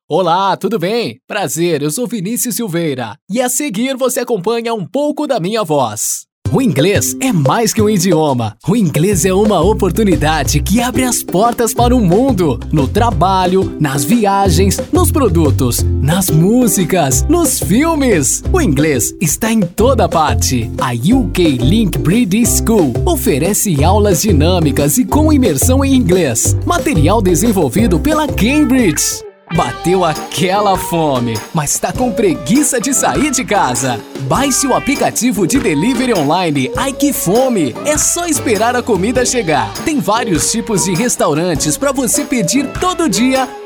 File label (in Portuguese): Locutores Comerciais